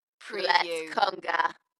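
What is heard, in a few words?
British female Lets conga; Dalekness; beanstalk audio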